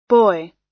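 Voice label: "boy", US English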